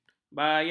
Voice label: "bayang", ms